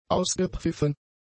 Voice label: "ausgepfiffen", German; adjectives